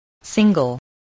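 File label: "single", spoken word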